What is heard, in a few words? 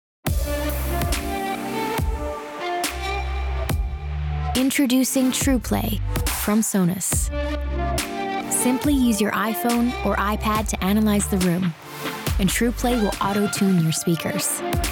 COMMERCIAL; Sonos